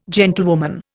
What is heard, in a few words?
"gentlewoman", Indian